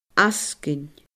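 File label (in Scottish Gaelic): "ascaoin", Scottish Gaelic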